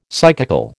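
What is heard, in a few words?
"psychical", American English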